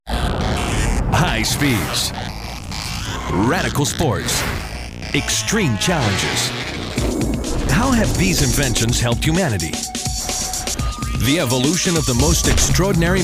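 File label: male, Brazilian, accented English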